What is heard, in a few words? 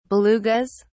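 "belugas", British English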